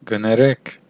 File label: excuse me, armenian western